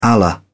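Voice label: "allah", English, British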